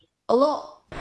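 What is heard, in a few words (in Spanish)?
Voice Acting, Spanish, female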